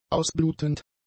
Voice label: "ausblutend", German; adjectives

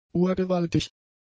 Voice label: "urgewaltig", German; adjectives

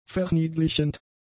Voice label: "verniedlichend", German; adjectives

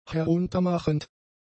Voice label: "heruntermachend", German; adjectives

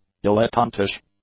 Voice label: "dilettantish", english; adjectives

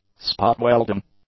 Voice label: "spot welding", english; nouns

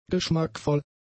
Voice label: "geschmackvoll", German; adjectives

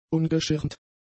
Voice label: "ungeschirmt", German; adjectives

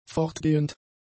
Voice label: "fortgehend", German; adjectives

